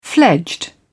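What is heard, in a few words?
"fledged", British English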